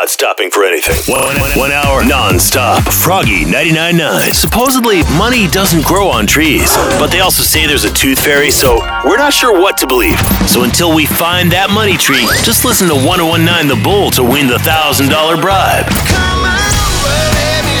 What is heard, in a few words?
radio imaging men country